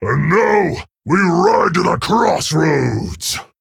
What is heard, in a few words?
Male Centaur; Barak; Story Guff Mission3 Loss